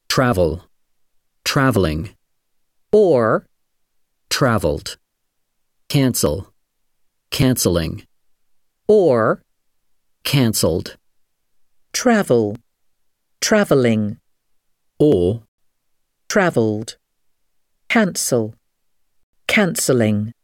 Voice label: British English